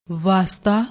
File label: "wasta", Punjabi; ਵਾਸਤਾ